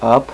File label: "Up", male; VOICE